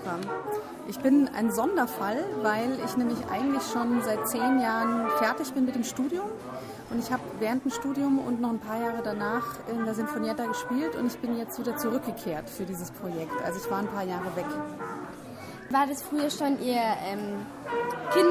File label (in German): interview klarinette; Serenade 2015